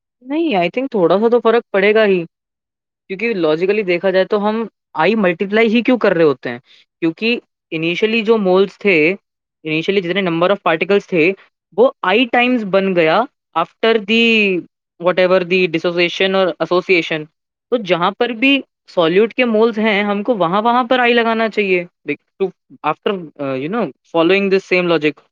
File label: voice message